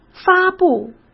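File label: "发布", Mandarin Chinese; hsk; chinese-flashcards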